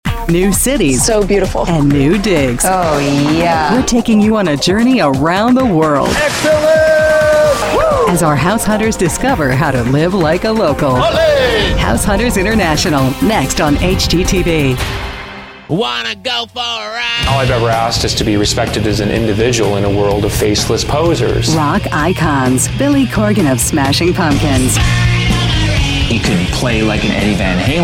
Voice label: Promo